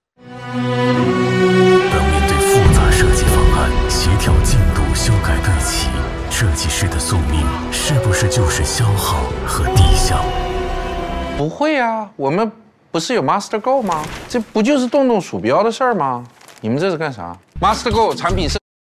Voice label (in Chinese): 剧情广告; 罗永浩